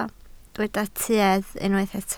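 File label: "tuedd" Welsh, spoken word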